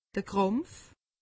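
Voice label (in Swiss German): "krampf", Alsatian; medecine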